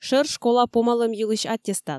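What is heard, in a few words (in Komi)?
"шӧр школа помалӧм йылысь аттестат", Komi, female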